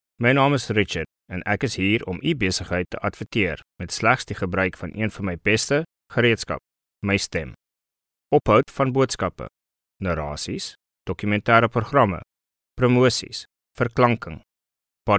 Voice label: Afrikaans

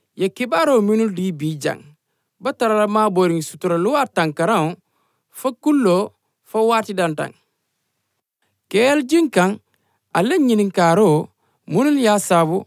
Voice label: Mandinka

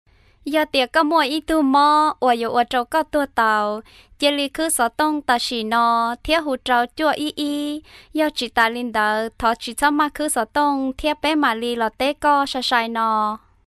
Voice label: Hmong

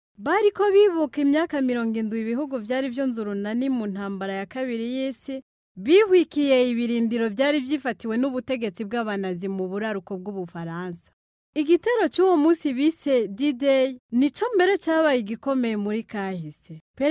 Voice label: Kirundi, Female

